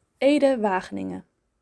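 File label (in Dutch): "Ede Wageningen", Dutch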